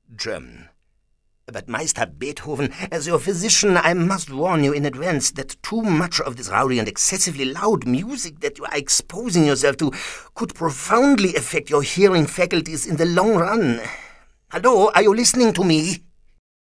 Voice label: German